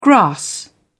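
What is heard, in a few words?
"grass", British English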